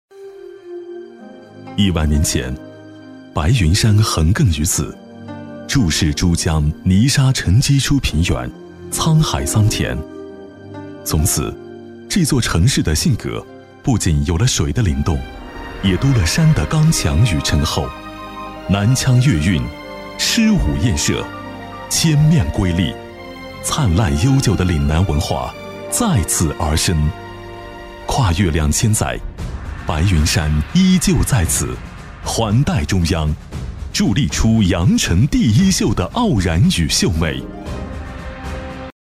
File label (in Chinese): 男 景区宣传 讲述 磁性; 越秀·天悦云山; 磁性质感; 质感磁性